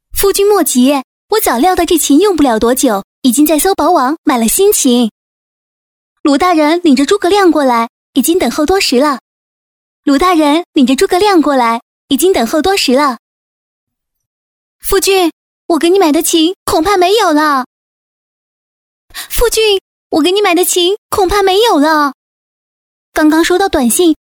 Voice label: 女 角色; 小乔

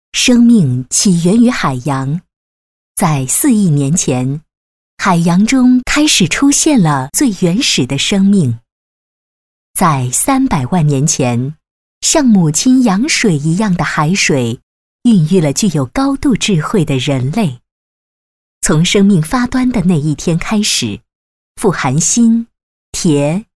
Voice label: 女 纪录片; 海盐

